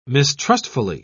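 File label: "mistrustfully", British English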